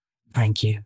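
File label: Voice Acting es, female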